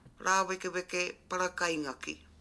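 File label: "rawekeweke parakaingaki", Māori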